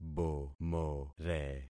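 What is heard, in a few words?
"bomore", concatenated syllables; Affixing experiment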